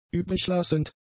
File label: "übriglassend", German; adjectives